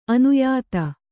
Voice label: "ಅನ್ಯಥಾ", Kannada